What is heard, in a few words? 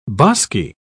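"bosky", British English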